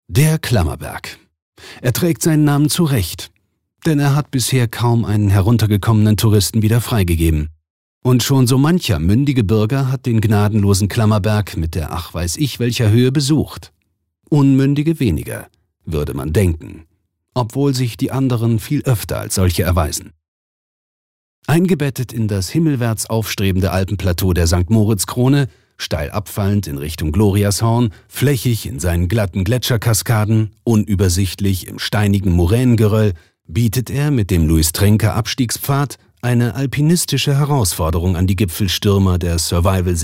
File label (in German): Kommentar Neutral